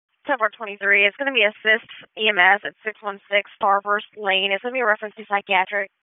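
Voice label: Dispatch 1, P25; WSO DISP 3; wake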